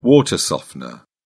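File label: "water softener", British English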